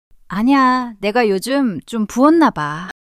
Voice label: shy; monologue